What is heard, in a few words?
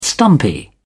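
"stumpy", English, uk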